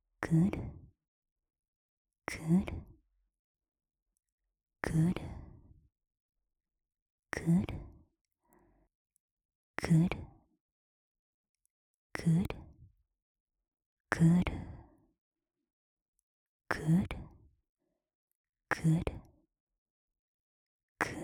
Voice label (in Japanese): クルクル ゆっくり, 低音お姉さん オノマトペオンリー